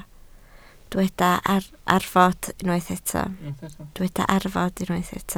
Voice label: "arfod", Welsh